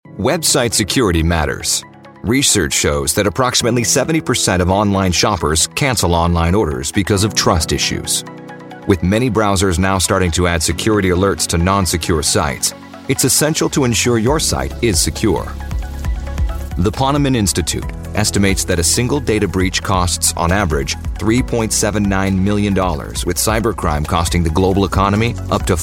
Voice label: american corporate

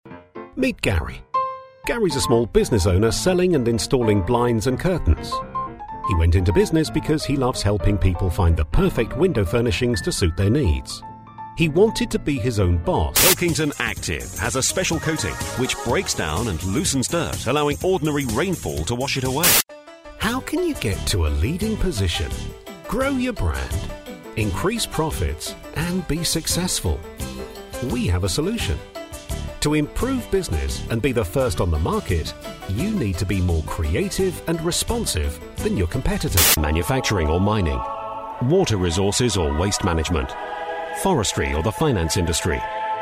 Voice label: video corporate informal

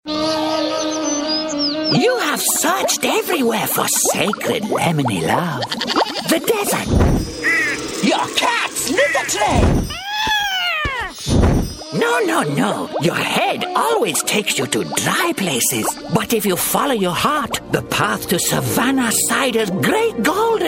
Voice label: eastern guru

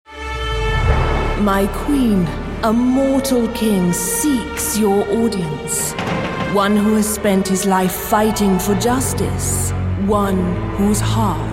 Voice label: character

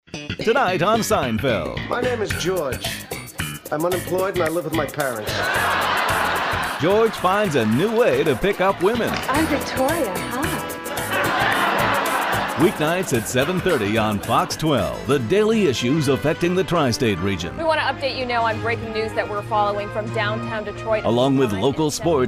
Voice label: american tv and radio promo, demos

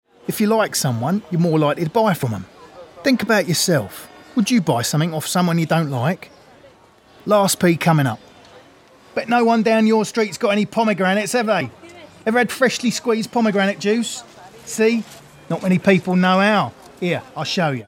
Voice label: mockney cockney market trader

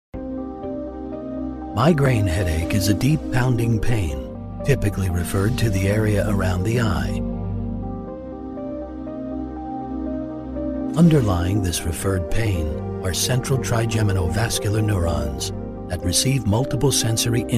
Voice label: american male corporate

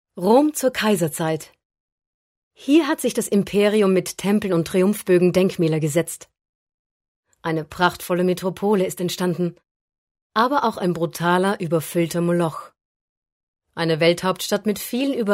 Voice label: german narration

